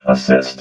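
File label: "assist", robot voice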